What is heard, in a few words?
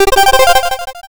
robo voice